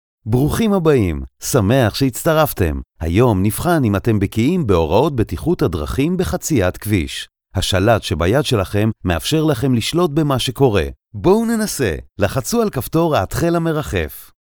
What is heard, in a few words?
Hebrew Voice, Road Safety